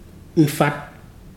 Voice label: "itch", Ibibio

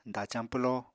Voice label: "dacañ pwloo", Apatani

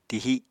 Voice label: "tihi", apatani

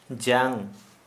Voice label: Santali bone

